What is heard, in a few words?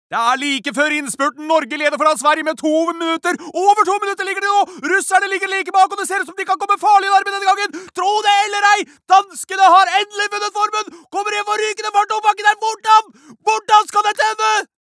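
Norwegian dub commentator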